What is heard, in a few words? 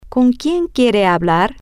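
Spanish, female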